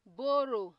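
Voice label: "borrow", Nigerian Pidgin, female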